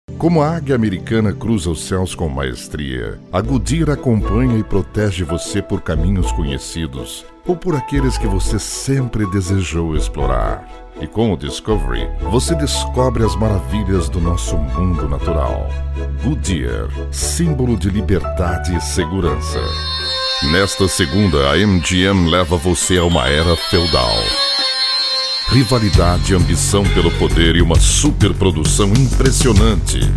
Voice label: portuguese, Brazilian Portuguese voice overs, Male